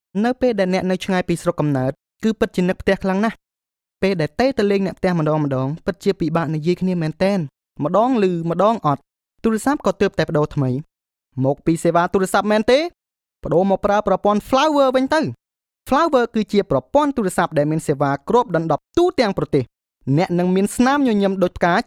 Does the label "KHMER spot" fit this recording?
Yes